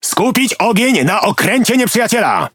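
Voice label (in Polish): Speech Quick Commands Enemy Ship, Polish; World Of Warships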